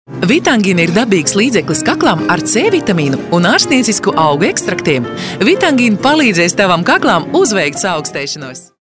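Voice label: Latvian, female, commercial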